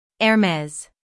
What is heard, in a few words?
"Hermès", US; IPA: /ɛrˈmɛz